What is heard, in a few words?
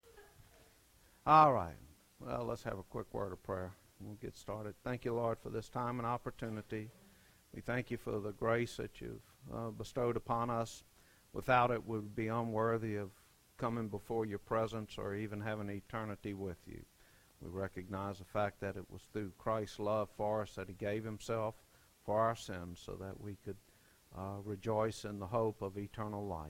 Preaching